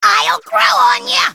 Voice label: Female Sporeling